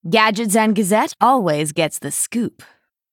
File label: Female Night Elf